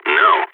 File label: negative, balkan